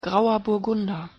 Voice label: pronunciation de grauer burgunder